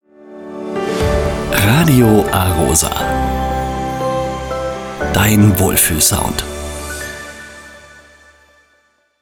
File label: Station ID